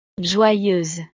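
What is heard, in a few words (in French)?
"joyeuse", French; estados animo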